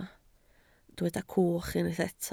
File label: "cwch", Welsh